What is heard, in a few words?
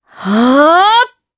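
Vox Casting Japanese; Priscilla; kings raid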